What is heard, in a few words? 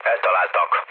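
Hungarian aircraft damaged mood low, speech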